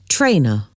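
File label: "trainer", English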